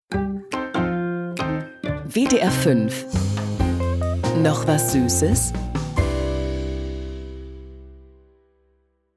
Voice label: station voice; wdr5